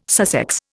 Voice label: "Sussex", Indian

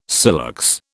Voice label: "silex", British

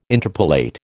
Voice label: "interpolate", American

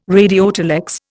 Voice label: "radiotelex", Indian

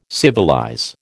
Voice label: "civilize", American

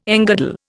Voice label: "engirdle", Indian

